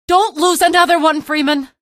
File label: evac casualty, female; video games; npc; source engine; episodic; episode 1